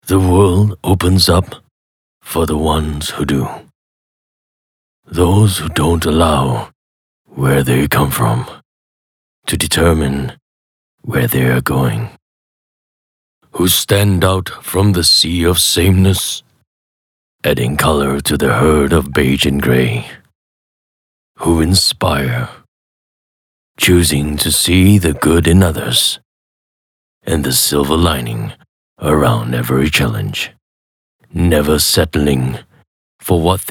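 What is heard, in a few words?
male VO ENGLISH Character; CharlesBukowski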